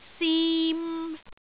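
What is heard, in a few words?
"sim3", Cantonese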